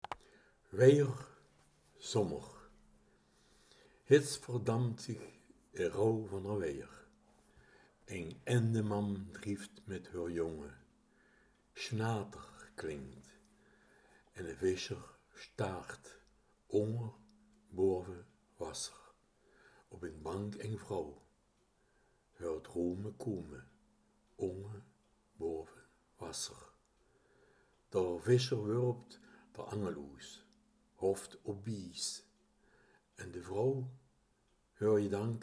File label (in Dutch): gedicht, Kerkraads Dialekt; weierzommer